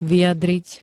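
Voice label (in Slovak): "vyjadriť", Slovak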